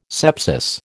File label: "sepsis", American